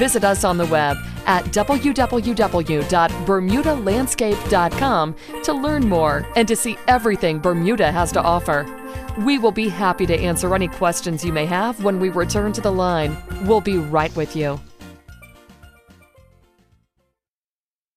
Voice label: Landscape Service On Hold Message